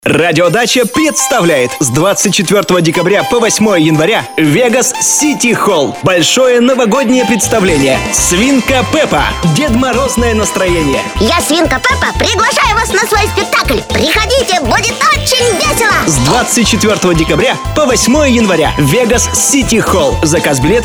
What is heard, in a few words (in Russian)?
Диктор - Аудиоролик; Свинка Пеппа